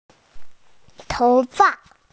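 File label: "tou2fa5", Chinese, F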